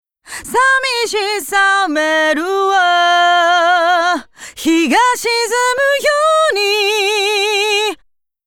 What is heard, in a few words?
Expander De Esser Basic De Ess On